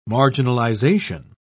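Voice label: "marginalisation", English, British English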